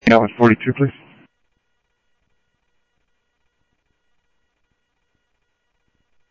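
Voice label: Sheriff West County, scanner audio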